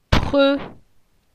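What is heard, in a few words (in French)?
"Pre", French; phonetique; la syllabe e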